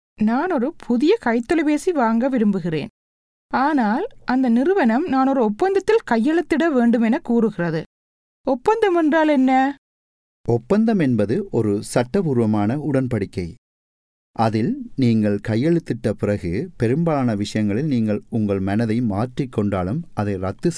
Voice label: contracts tamil

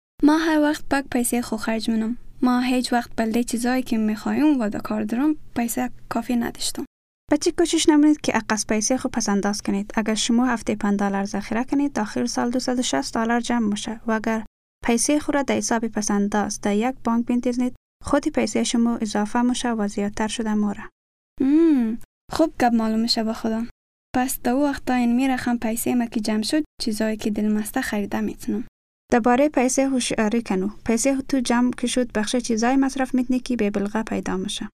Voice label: saving money hazaragi

